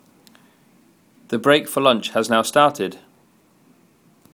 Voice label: Lunch break start male voice